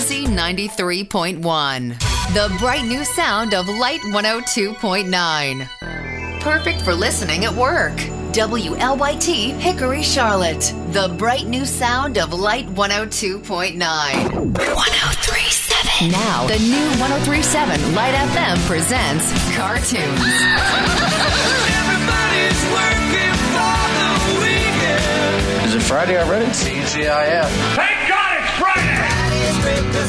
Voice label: Soft Rock AC Imaging VO; Demo